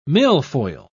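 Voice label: "milfoil", British English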